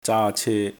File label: "zaa1 ce1", cantonese